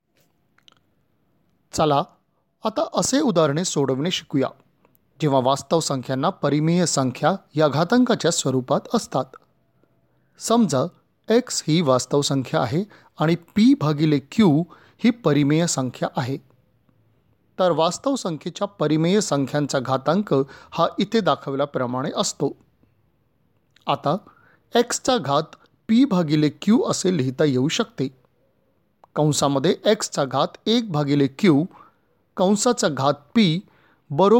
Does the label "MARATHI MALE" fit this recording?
Yes